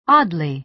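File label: "oddly", American English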